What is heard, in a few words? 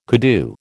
"koodoo", American